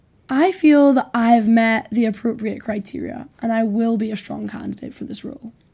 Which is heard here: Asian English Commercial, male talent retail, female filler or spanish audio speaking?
female filler